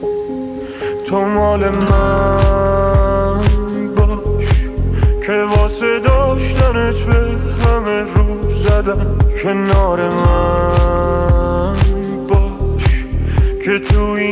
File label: Male Man